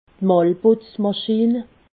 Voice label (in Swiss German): "malzputzmaschin", alsace